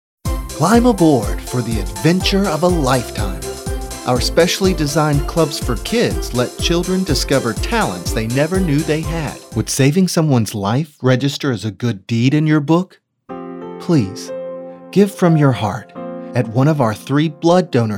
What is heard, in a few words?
commercial